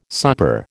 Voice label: "supper", American

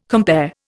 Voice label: "compare", Indian

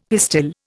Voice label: "pistil", Indian; Dictionary

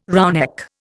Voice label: "roughneck", Indian

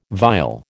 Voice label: "viol", American

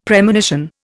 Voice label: "Premonition", Indian; Dictionary

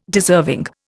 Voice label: "deserving", Indian

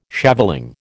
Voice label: "shaveling", American

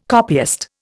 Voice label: "copyist", Indian

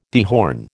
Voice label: "dehorn", American

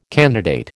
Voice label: "candidate", American

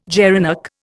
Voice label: "gerenuk", Indian

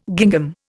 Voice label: "gingham", Indian

